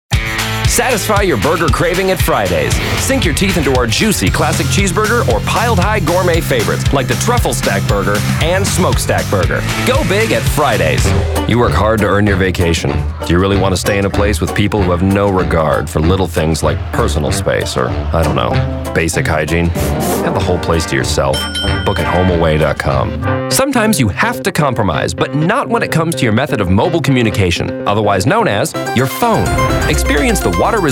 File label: Commercials